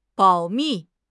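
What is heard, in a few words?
"保密", Mandarin Chinese; HSK; HSK6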